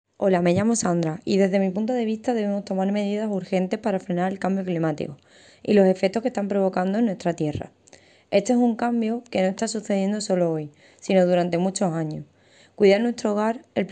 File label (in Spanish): Narrativa; cartografias textiles